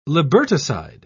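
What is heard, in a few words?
"liberticide", British English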